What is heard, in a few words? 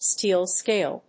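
"steel scale", English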